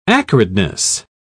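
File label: "acridness", British English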